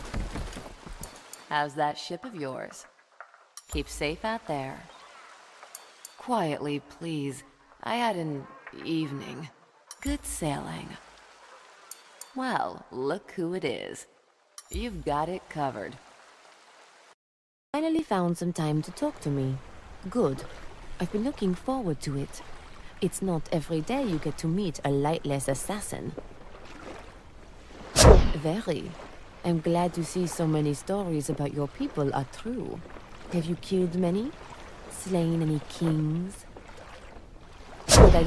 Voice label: Gaming, femalevoices